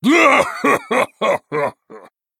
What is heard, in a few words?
Male Human Play